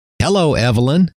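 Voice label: hello, male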